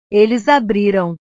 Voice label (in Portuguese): "eles abriram", portugues; verbos